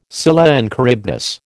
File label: "Scylla and Charybdis", American